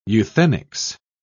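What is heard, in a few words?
"euthenics", British English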